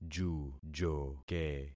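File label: "jujoke", concatenated syllables; Affixing experiment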